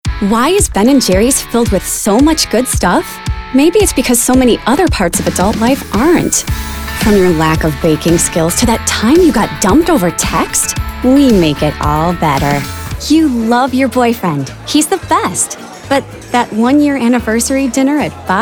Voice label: Commercial VO